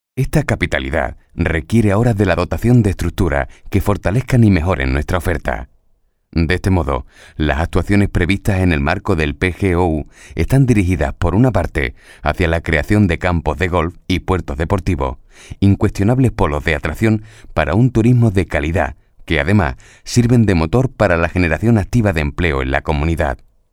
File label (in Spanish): Masculino